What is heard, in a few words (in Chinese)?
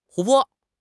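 "湖泊", Chinese; HSK6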